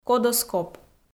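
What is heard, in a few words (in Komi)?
"кодоскоп", Komi, female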